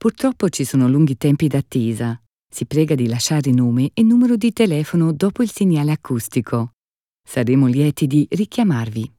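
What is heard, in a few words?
Italian, Kommentar Freundlich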